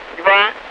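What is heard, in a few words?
Russian speech, Mi-8MT RI65; DCS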